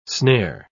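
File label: "snare", English pronunciation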